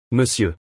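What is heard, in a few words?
"monsieur" French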